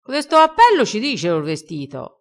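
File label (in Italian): "dirci", dialettale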